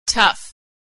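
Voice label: "tough", American English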